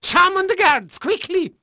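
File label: Dutch male, voice; Pirates Of The Caribbean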